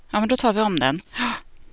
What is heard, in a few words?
ingressive